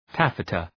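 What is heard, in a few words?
"taffeta", English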